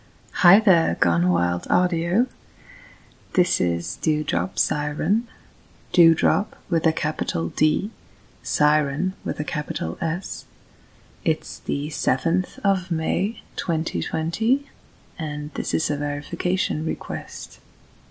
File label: f verification